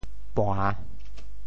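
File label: "pua~3", Teochew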